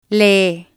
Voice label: "lee", spanish

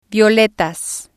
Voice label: "violetas", Spanish

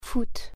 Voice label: "soccer", French

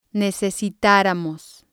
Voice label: "necesitaramos", spanish

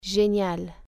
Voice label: fun, french

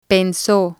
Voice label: "penso", spanish

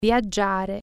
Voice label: travel, italian

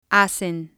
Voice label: "hacen", spanish

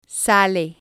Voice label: "sale", Spanish

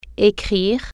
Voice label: "write", French

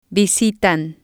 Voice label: "visitan", Spanish

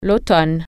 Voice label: fall, french

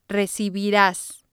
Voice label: "recibiras", Spanish

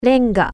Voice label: brick, japanese

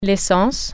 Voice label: gas, french

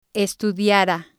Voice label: "estudiara", spanish

